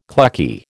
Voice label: "clucky", American

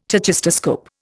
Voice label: "tachistoscope", Indian

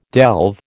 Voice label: "delve", American

